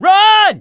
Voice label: yell run, voice, mechanic, survivor